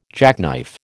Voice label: "jackknife", American